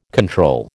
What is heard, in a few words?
"control", American